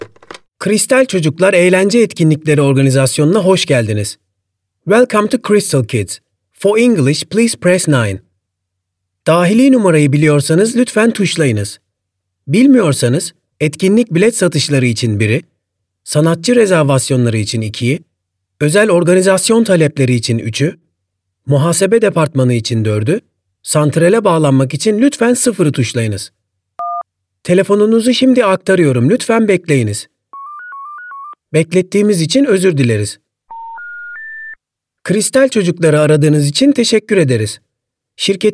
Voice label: Turkish M IVR; voice-over